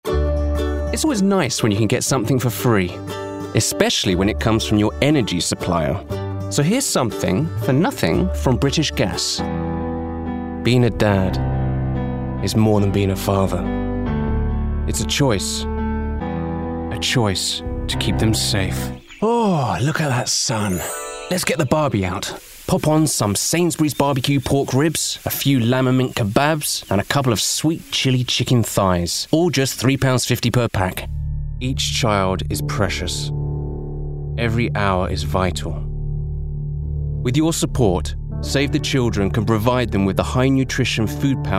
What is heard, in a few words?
Commercial Voicereel